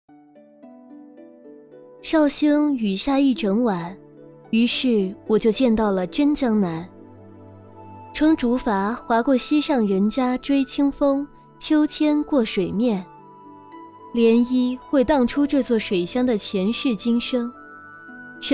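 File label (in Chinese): 宣传年轻文艺旅游文宣; 宣传年轻文艺绍兴旅游文宣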